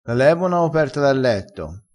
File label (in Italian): "chiama e rispondi", crespinese